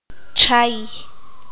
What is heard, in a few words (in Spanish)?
pescado, qanjobal